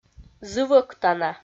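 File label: "зывӧктана", Komi, female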